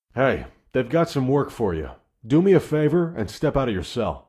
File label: Exit Cell, Guard; Ulgrin